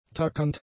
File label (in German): "tuckernd", German; adjectives